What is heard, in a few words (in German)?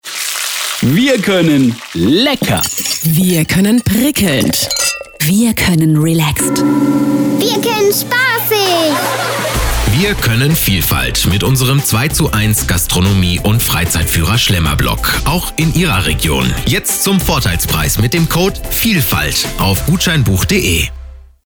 Radiospot; Schlemmerblock